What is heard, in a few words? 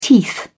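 "teeth", British English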